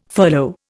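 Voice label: "furlough", Indian English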